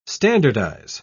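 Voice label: "standardise", British English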